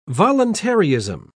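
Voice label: "voluntaryism", British English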